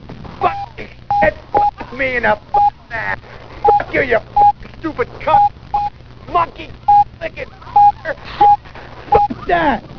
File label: doh bleep; dod